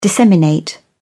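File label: "disseminate", British English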